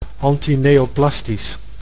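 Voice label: "antineoplastisch", Dutch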